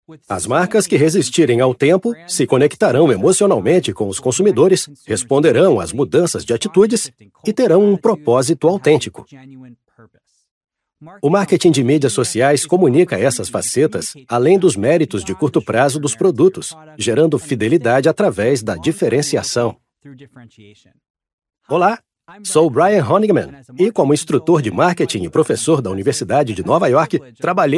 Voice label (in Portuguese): E learning; LinkedIn